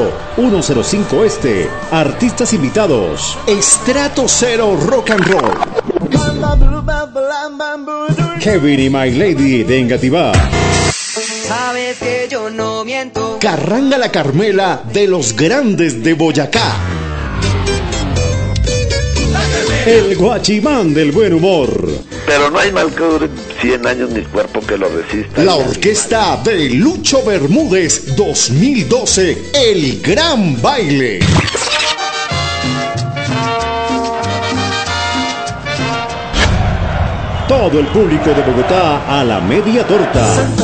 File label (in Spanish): CUÑA EVENTO RADIO; SANTA FE; MEDIATORTA